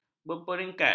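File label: "berperingkat", Malay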